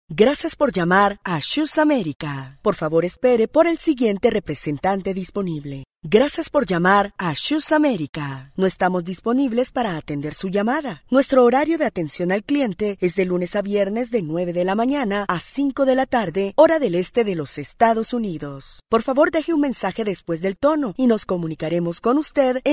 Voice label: (MOT) Ispanu balsas; moteris

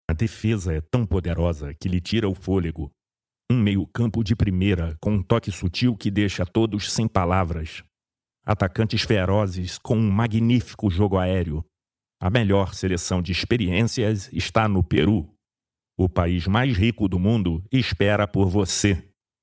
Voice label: (VYR) Brazilu balsas, vyras

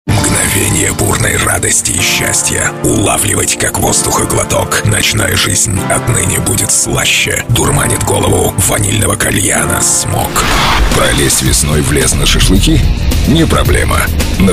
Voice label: Rusiškas balsas, vyras

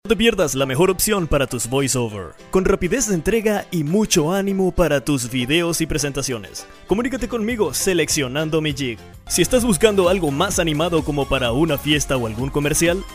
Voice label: Ispanu balsas, vyras

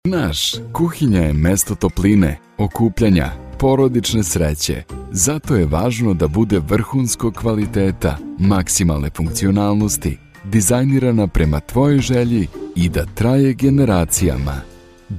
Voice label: VYR Serbu balsas, vyras

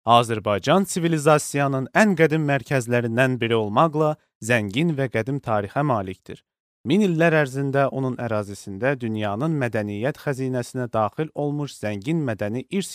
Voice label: (VYR) Uzbeku balsas; vyras

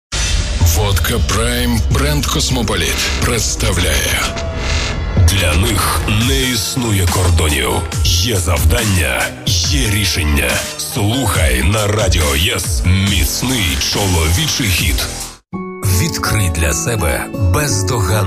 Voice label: Ukrainieciu balsas, vyras

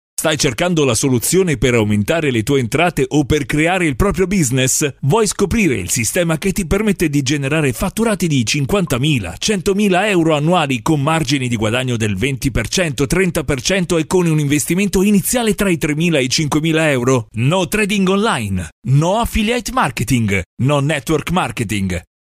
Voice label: VYR Italu balsas, vyras

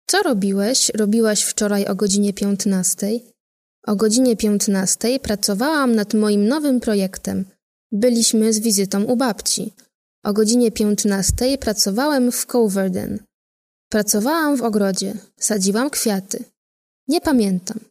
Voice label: MOT Lenku balsas, moteris

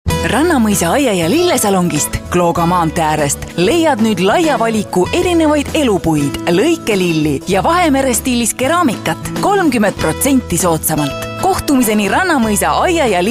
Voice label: (MOT) Estų balsas; moteris

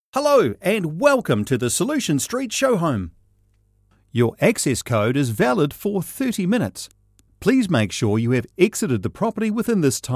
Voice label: (VYR) Australu balsas; vyras

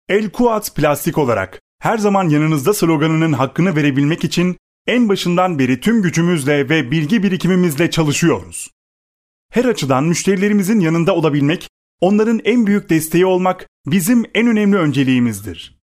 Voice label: VYR Turku balsas, vyras

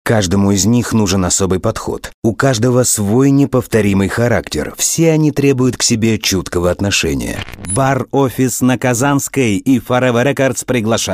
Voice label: Rusiškas balsas, vyras

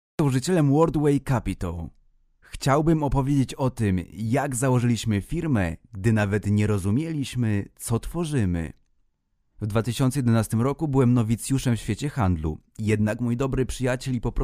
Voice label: VYR Lenku balsas, vyras

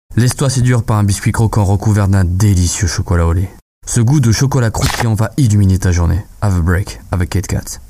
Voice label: VYR Prancuzu balsas, vyras

